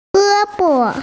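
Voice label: "arm", Mandarin Chinese, female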